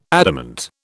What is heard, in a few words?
"adamant", British